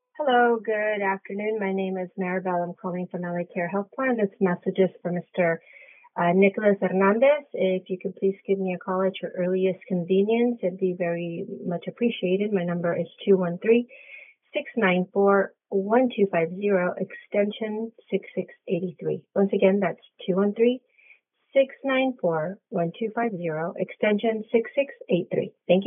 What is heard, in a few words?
voicemail